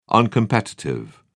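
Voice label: "uncompetitive", British English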